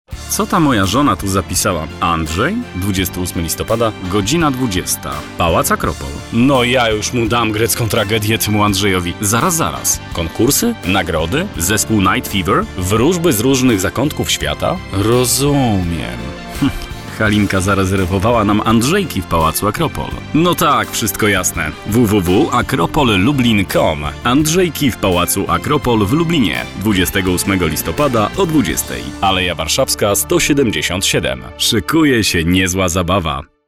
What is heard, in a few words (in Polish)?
reklama